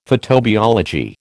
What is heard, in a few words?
"photobiology", American